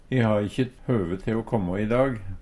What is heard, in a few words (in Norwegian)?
"høve", Numedalsmål